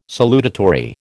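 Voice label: "salutatory", American English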